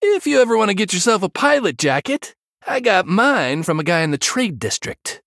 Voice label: Male Human Flavor

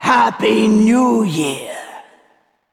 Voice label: Female Val'kyr HAPPY NEW YEAR

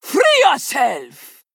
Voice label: Female Troll Attack

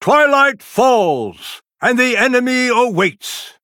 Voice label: Male Night Elf; Malfurion

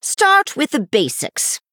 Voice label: Female High Elf Emote Recruit Small Minion; Bacon Shop Bob

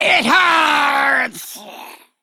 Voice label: Male Undead Death

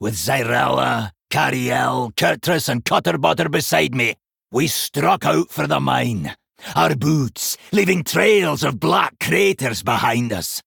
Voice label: Male Dwarf Boss Reveal

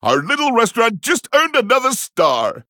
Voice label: Male Pandaren After Shop Upgrade

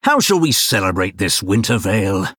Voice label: Male Blood Elf HAPPY HOLIDAYS

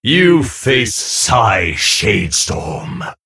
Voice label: Male Human Greetings

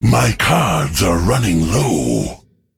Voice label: Male Drust

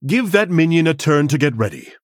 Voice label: Male Human ERROR JUST PLAYED; Arthas

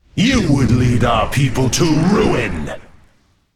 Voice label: Male Night Elf

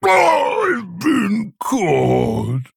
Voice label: Male Orc Death

